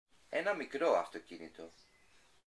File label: GREEK A big car; real life language